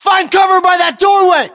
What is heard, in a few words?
order cover doorway, battlechatter us